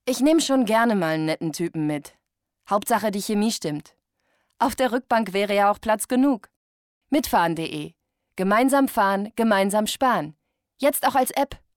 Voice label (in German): mitfahren werbung; LOFT VOICES